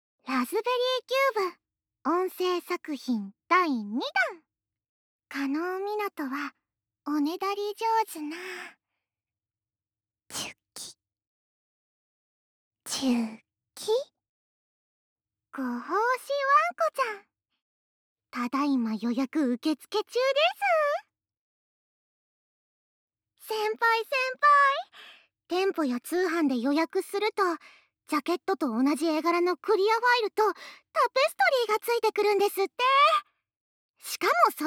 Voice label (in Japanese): 販促ボイス; ASMR